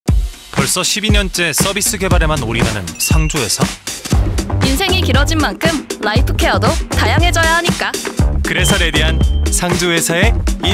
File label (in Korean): 광고; 대명아임레디